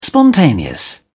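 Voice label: "spontaneous", British English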